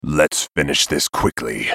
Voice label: move, en US; Talon

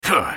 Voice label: effort, en US; Twisted Fate

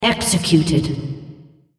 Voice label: female On Champion Kill Turret

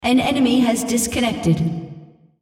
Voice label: female, On Quit Enemy Team

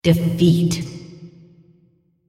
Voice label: female, defeat

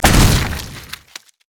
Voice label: pirate raise moral; LoL; gangplank

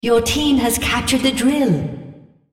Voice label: female, Drill; Odin

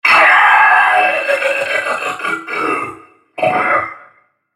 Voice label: dying, en US; Vel'Koz

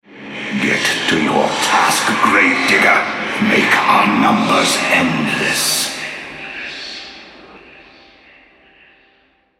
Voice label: altar capture, male, en US; Yorick

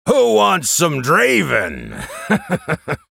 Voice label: taunt, en US; Draven Gladiator

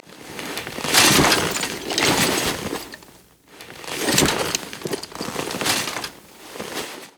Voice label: hermit annoyed; LoL; emitters map12

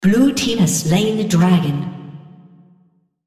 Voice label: Kill Dragon, female, en US; blue

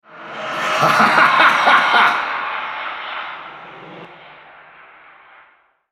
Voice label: Altar Capture, Male

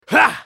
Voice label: spellcast effort, en US; Swain

